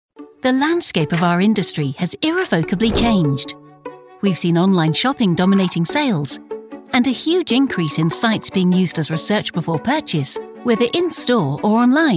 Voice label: Corporate

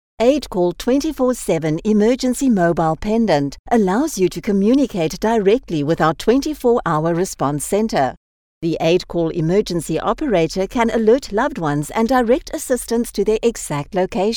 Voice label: TV Ad Eng; AidCall

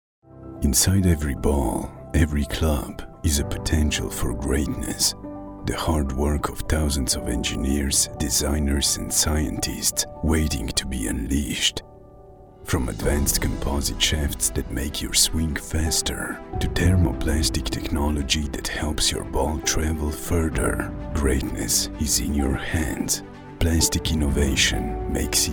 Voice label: english

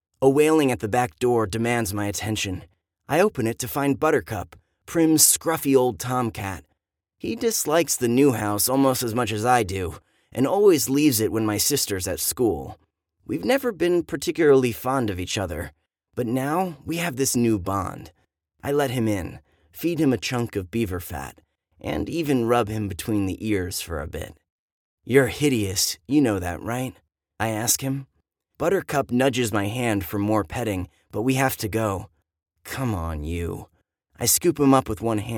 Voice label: Audiobook

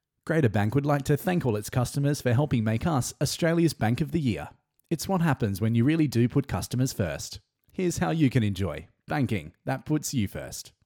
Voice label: Corporate DRY

